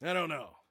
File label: Don't Know, Soldier Male